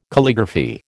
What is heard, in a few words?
"Calligraphy", American